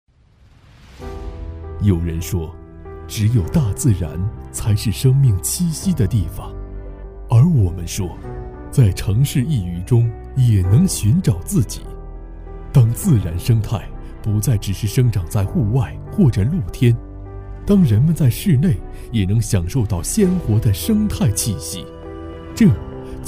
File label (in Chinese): 男 沉稳广告; 鑫灵影视